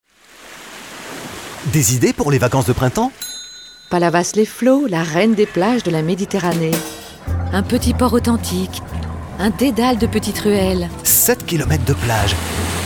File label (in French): spot radio; palavas; fb toulouse; herault